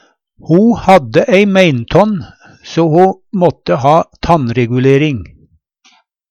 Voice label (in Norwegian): "meintånn", Numedalsmål; Substantiv hokjønn